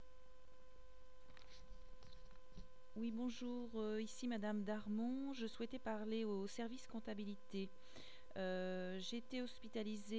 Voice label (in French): message repondeur